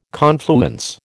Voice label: "confluence", American

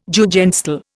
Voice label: "Jugendstil", Indian

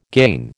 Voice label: "gain", American

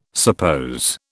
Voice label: "suppose", British

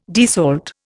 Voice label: "desalt", Indian

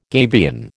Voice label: "gabion", American

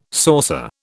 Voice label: "saucer", British

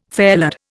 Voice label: "fairlead", Indian

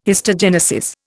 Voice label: "histogenesis", Indian

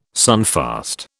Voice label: "sunfast", British

